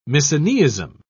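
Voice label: "misoneism", British English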